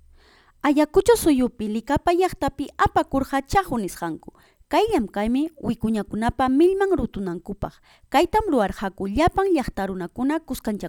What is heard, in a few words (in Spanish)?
VOZ EN OFF, QUECHUA; ayacucho; CHACCO LICAPA